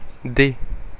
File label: Fr Paris